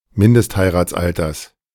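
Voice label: German "Mindestheiratsalters"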